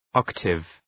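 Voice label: "octave", English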